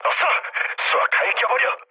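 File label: Korean attack position mood low; speech; War Thunder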